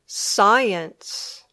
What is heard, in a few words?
"science", English